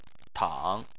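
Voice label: "tang", Chinese, third tone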